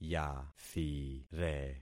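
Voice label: "yathire", concatenated syllables; Affixing experiment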